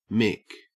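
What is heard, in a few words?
"mick", Australian English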